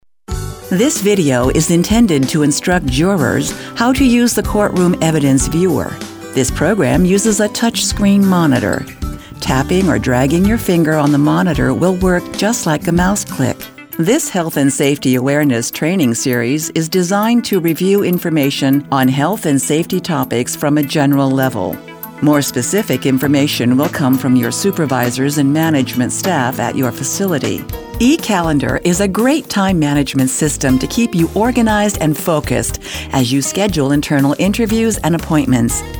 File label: elearning